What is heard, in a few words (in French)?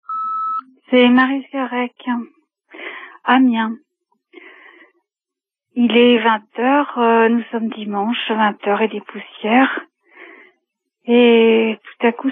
Mai repondeur